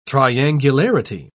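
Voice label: "triangularity", British English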